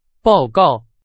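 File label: Chinese; hsk3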